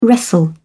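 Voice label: "wrestle", English, British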